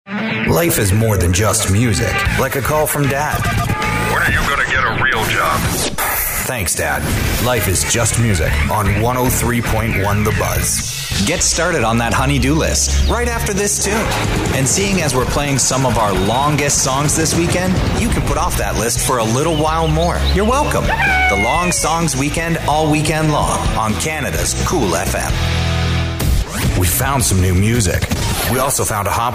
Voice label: Imaging